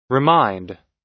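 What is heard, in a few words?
"remind", US English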